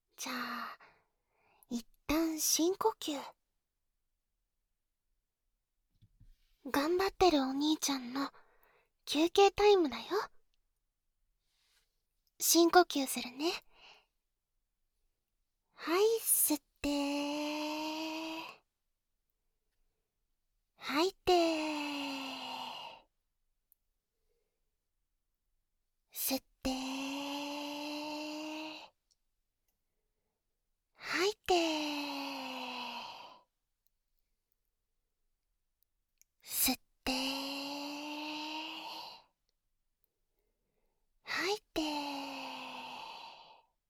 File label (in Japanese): 励まし音声; 「早漏でも偉い」後半